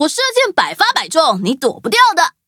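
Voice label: loading, traditional Chinese; Cookie Run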